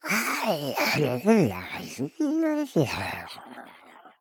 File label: Thinking